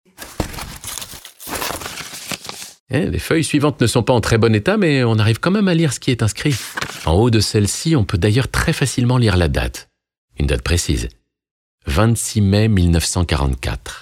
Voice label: podcast voix off; terrenoire

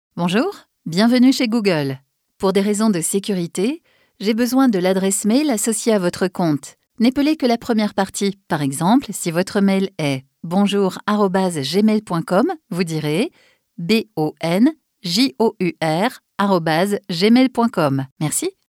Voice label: attente telephonique voix off femme; google

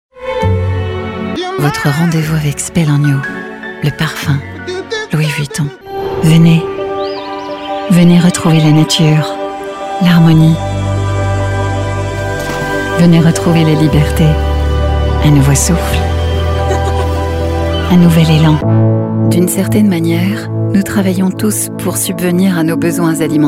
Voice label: voix off french voice over pub posee

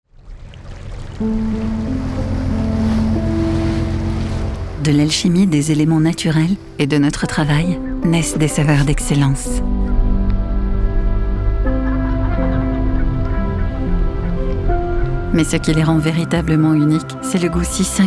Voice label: pub moules huitres voix off; label rouge

